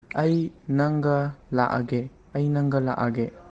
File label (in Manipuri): "Ei nangga lkage", Manipuri; I shall come with you.